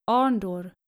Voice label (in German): "arndor", skandinavische jungennamen